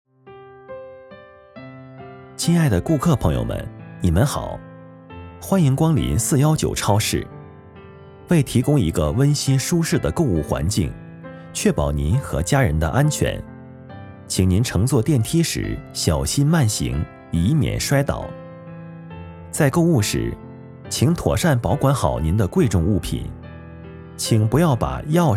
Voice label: 超市导购

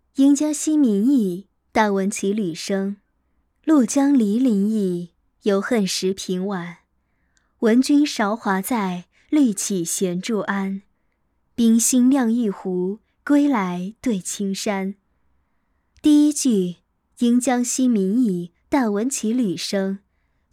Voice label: 诗词叙述

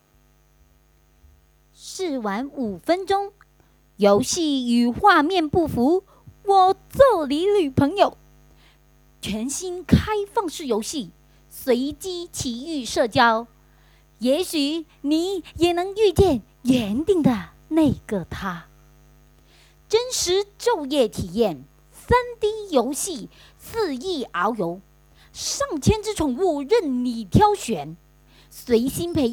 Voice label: 台湾腔 游戏 广告

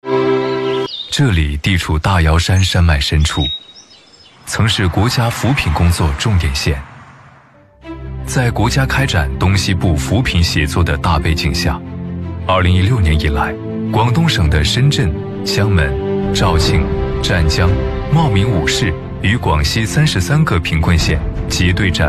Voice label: 高端男自然讲述; 光明行

